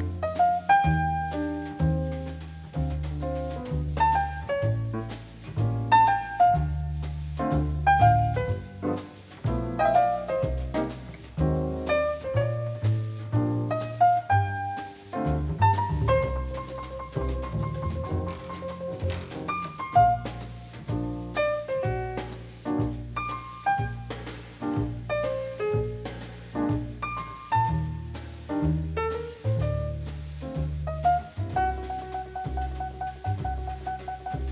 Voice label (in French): soirée jazz sur radio; Radio Classique